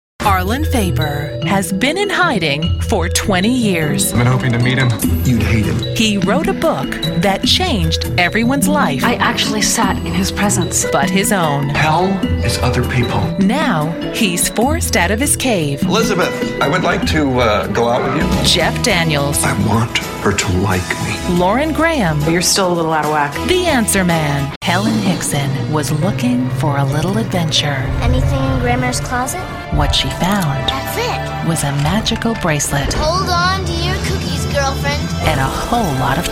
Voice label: trailer female

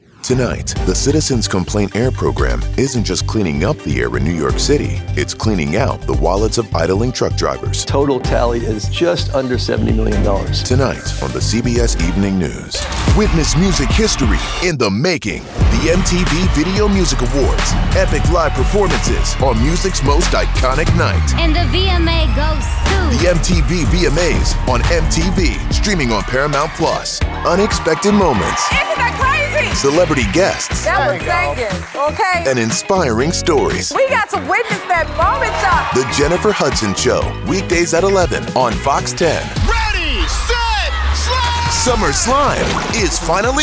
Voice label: promo men

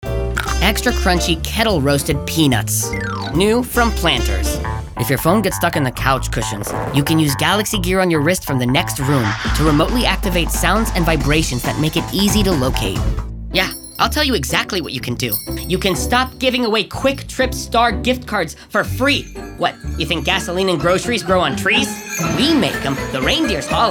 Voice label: commercial male

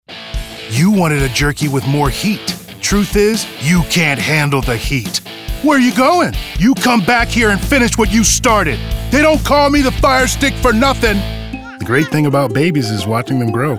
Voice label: commercial men

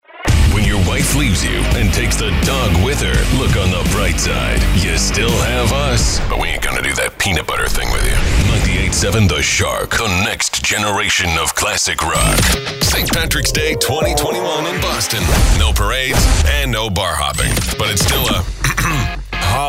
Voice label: radio imaging men

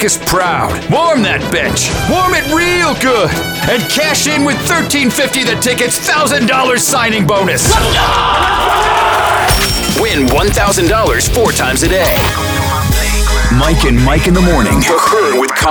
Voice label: radio sports, radio imaging

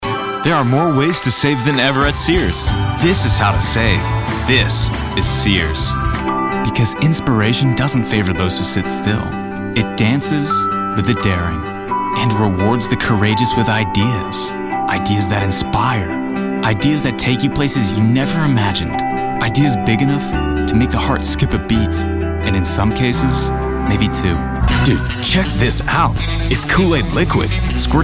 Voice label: commercial male; commercial men

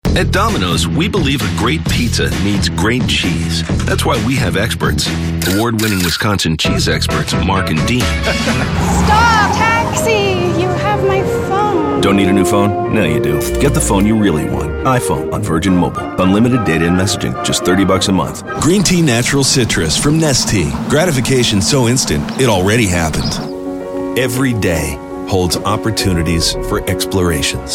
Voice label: commercial, men

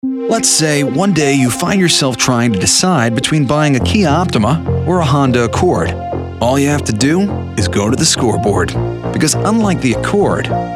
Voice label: commercial male

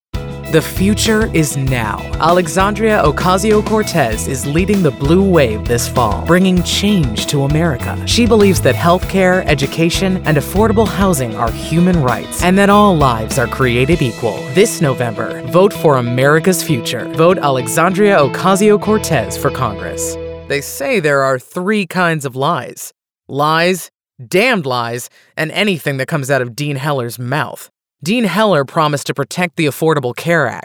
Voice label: political women

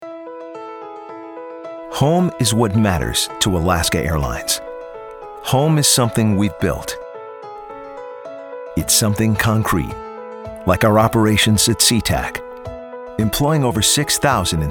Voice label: narration men corporate

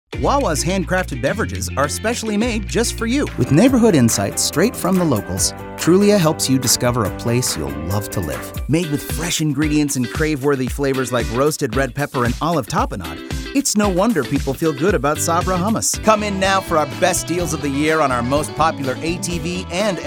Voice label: commercial men

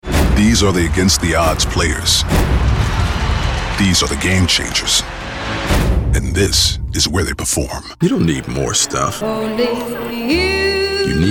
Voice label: promo men

